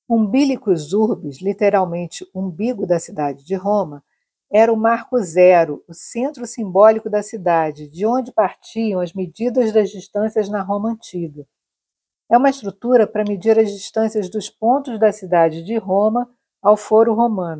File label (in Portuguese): Audioguia; Umbilicus Urbis; Marco Zero